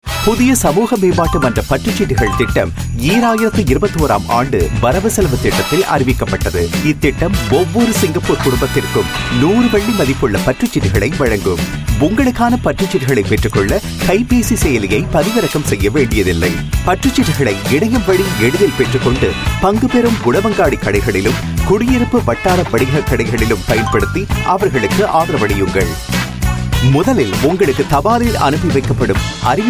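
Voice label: Tamil Singapore; CDC Vouchers Scheme Step By Step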